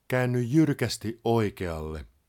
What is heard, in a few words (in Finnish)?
Sharp Right, Finnish male; Opas